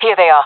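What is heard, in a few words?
sees enemy, female voice; player vo; Counter Strike Model Pack; CS:GO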